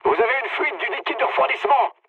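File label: damaged water, mood high, French; War Thunder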